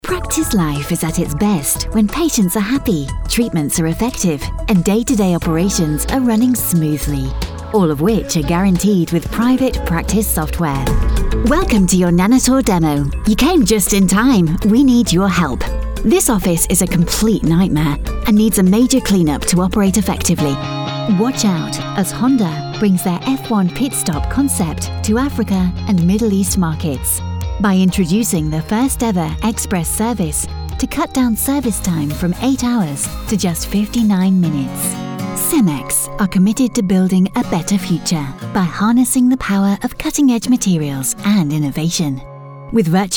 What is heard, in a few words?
Promo Film; voiceovers